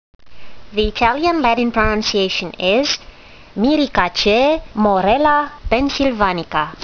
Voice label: latin; Morella pensylvanica